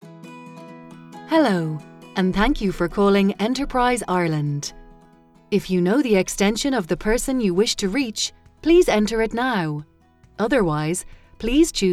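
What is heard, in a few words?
english irish interactive voice response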